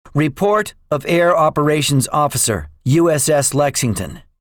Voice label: debriefing, english; War Thunder

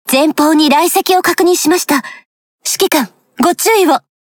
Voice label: Speech Alarms Torpedo Ahead; Azur Lane

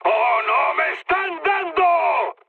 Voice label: aircraft damaged, mood high, speech; War Thunder

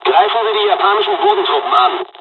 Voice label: takeoff, dialogs german; War Thunder

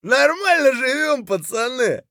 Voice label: mutter; Escape from Tarkov; scav4

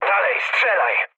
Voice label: attack position mood low, speech pol; War Thunder

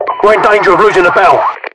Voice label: losing, localized english, UK voiceovers; mpvoice

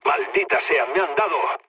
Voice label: aircraft damaged, mood low, speech; War Thunder

